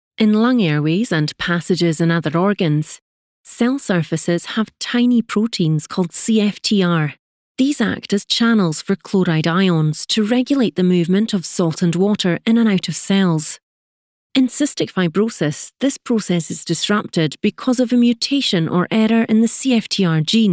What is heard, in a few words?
E-learning Training Sample